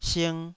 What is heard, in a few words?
"sing2", Taiwanese